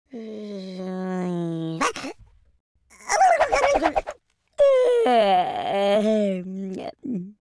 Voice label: idle, Italian